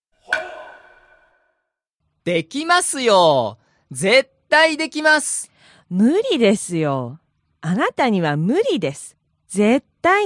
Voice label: dialog; JapanesePod101; Beginner Season 1